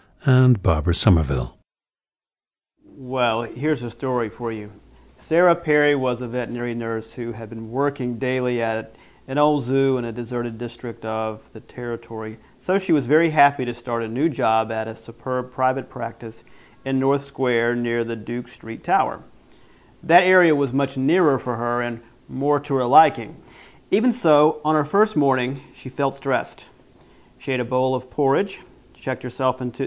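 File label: florida, International Dialects of English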